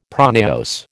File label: "pronaos", American